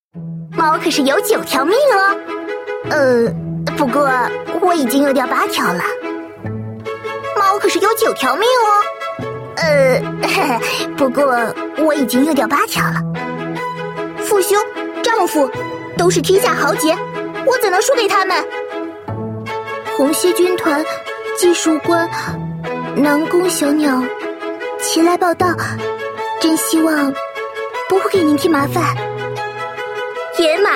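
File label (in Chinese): shaonü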